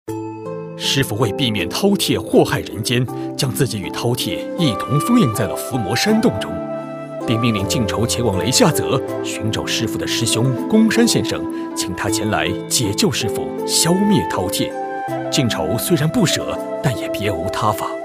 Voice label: 男生 旁白

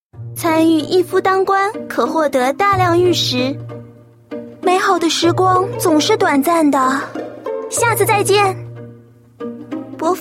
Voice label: shaonv

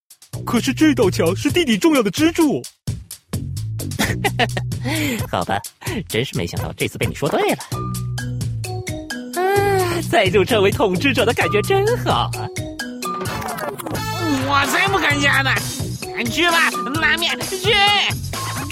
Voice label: nan qita

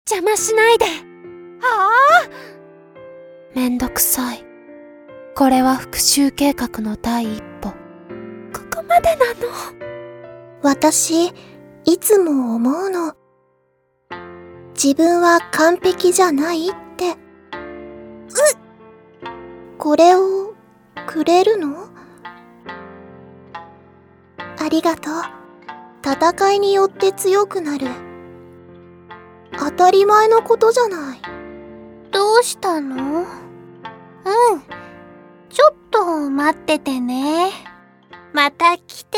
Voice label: 少女-日语-女

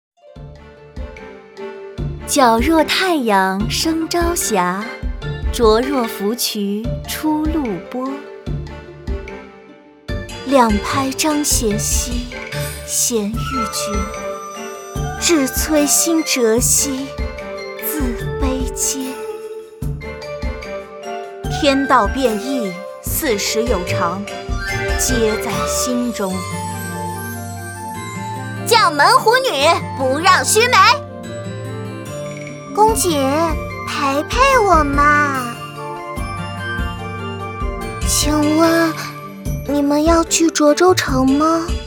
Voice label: 女

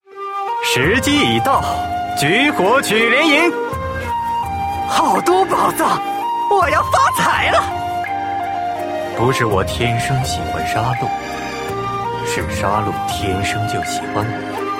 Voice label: qingnian

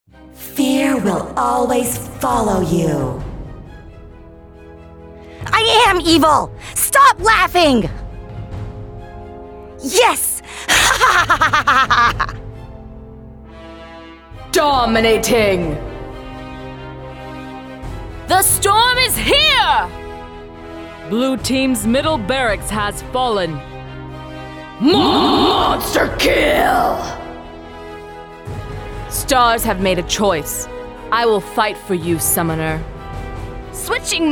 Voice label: 其他-英语-女

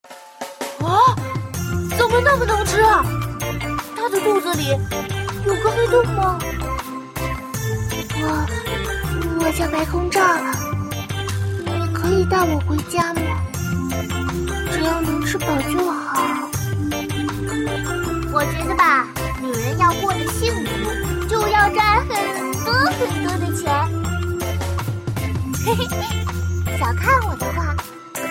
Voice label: zhengtai luoli

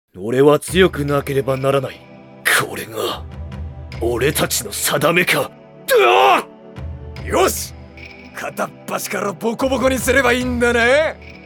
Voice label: 壮汉 日语 男

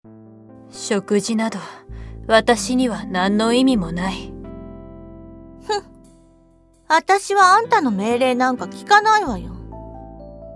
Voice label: 御姐女王

